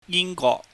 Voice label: "ying gwok", Cantonese